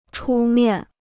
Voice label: "出面", Chinese

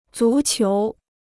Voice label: "足球", Chinese

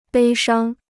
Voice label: "悲伤", Chinese

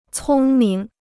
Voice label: "聪明", Chinese

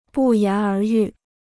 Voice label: "不言而喻", Chinese

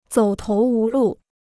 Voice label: "走投无路", Chinese

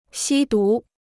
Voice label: "吸毒", Chinese

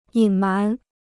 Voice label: "隐瞒", Chinese

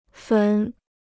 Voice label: "坟", Chinese

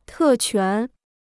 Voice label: "特权", Chinese

